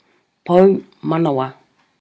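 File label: "pou manawa", Māori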